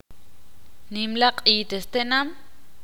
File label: "Feria", Ixil